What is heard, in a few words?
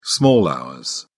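"small hours", English, British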